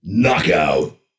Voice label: booming male narrator "knockout"